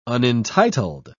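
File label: "unentitled", British English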